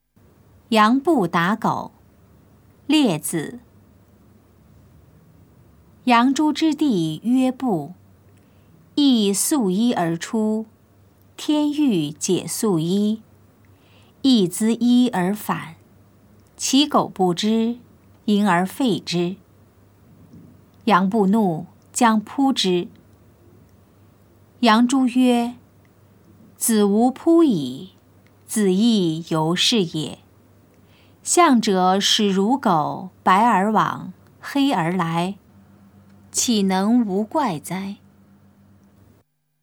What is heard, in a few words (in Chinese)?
putonghua; 楊布打狗; 普通話